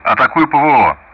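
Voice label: Speech Russian; DCS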